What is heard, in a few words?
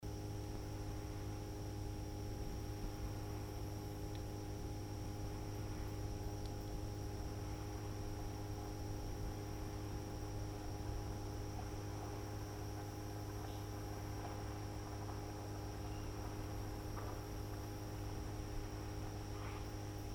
voice unfiltered